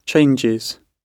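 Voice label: "changes", British English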